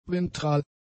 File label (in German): "ventral", German; adjectives